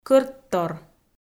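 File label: "кӧрттор" Komi, female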